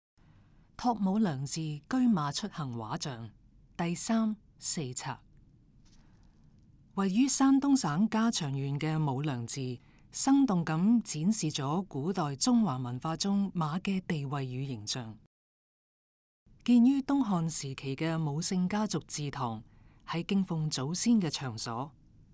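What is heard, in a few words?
Cantonese, audio guide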